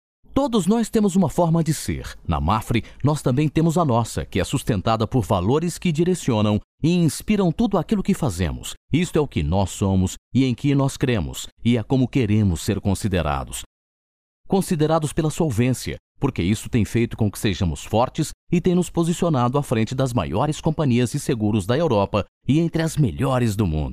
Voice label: locutor brasil brazilian voiceover narracion video